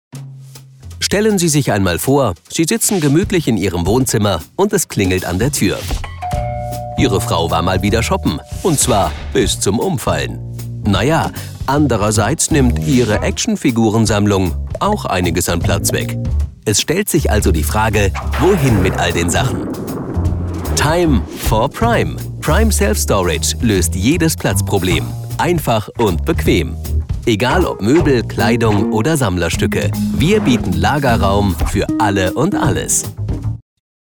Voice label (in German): sprecher erklaerfilm; selfstorage